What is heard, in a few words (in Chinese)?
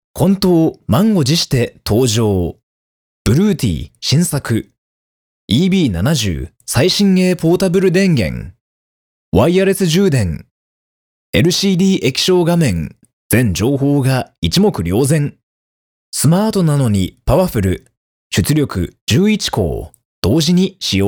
日语男声 轻松解说产品介绍 日语